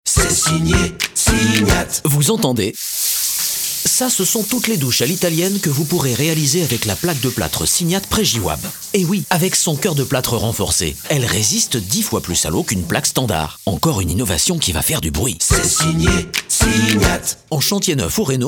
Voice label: radio